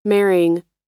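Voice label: "marrying", American English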